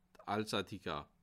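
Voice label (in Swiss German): "alsatika", Bas Rhin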